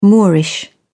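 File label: "moorish", British English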